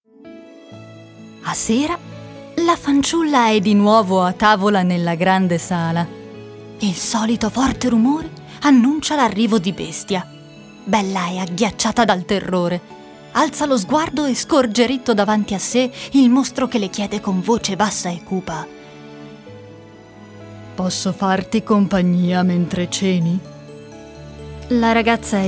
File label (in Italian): audiolibro